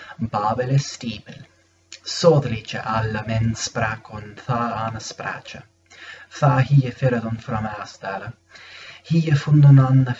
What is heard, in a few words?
anglosaxon